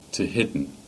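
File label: "Tehidy" Cornish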